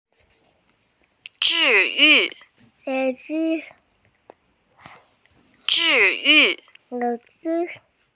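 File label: "cure", Mandarin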